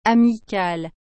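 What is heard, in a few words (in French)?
"amicale", French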